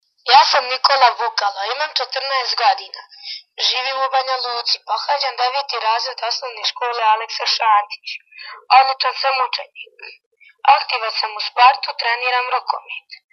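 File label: Bosnian Serbian boy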